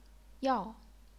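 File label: "yao", chinese pinyin, fourth tone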